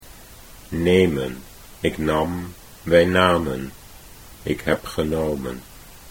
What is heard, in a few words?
nemen ik nam, Dutch